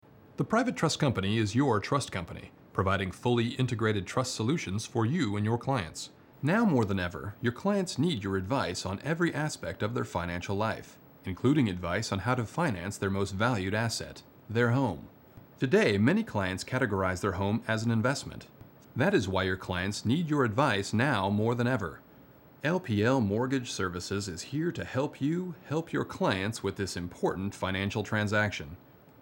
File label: Voice Over